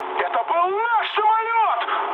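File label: player killed ally, mood high, Russian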